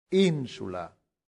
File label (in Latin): "insula", latin; santillana